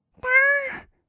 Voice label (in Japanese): "たー", kawaii voice; ASMR; 1200 kawaii voice!!; 音ゲー